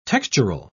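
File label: "textural", English, British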